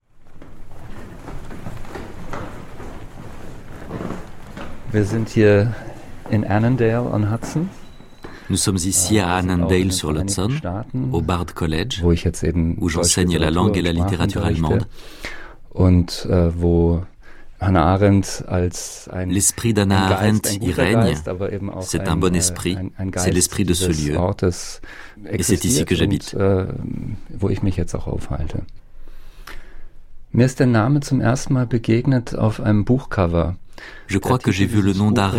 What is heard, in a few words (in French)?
Voice Over Radio